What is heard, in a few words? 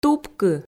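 "тупкы", Komi, female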